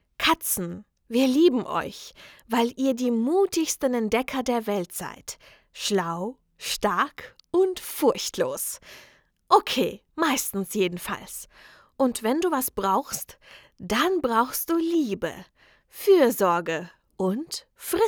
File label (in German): Werbung